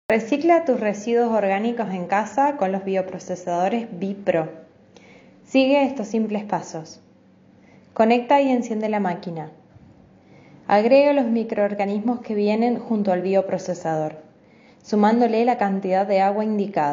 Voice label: Spanish (LA), Female